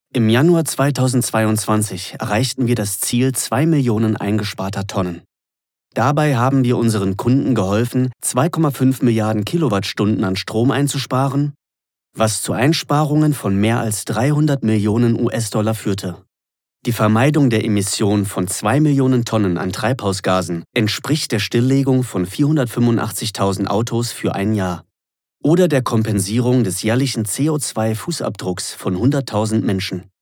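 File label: German elearning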